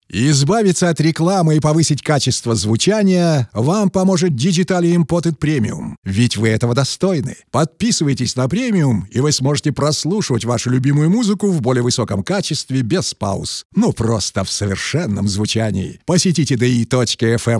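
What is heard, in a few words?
Russian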